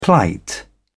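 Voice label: "plight", British English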